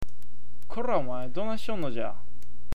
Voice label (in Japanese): "donaisyon", awaji ben